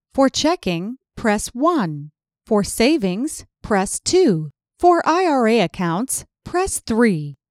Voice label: IVR, Banking Choices